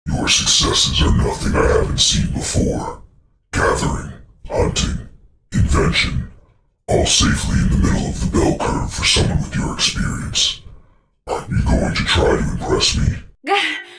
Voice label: Glitch; HLNA